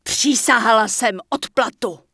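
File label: Human Female Official NPC Greeting, Czech; wowpreklad; czwow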